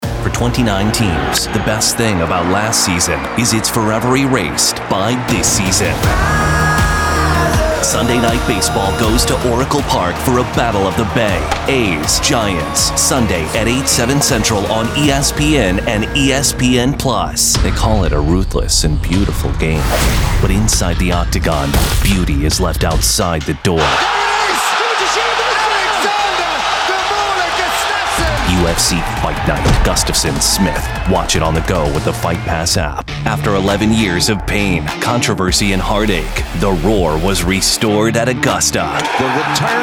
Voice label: promo men sports

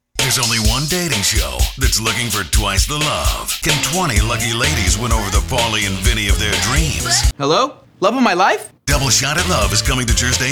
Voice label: promo men

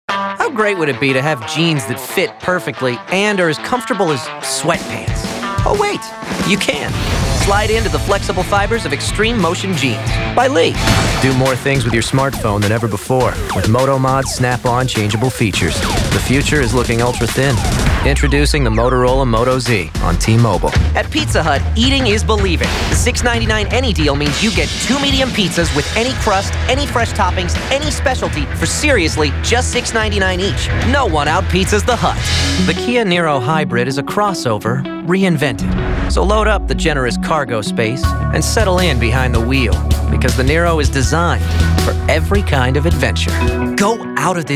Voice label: commercial male

